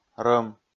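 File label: "рӧм", Komi, male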